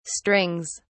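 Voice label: "strings", British English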